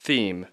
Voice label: "theme", English